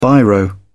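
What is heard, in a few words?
"biro", British English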